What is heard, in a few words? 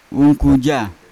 "ungkuja" netbag, Yawa